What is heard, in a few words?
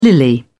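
"lily", British English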